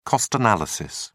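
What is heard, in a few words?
"cost analysis", British English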